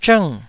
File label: "zhng4", taiwanese